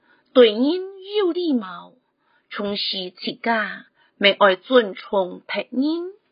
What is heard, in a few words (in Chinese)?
(海陸)客語; 生活常規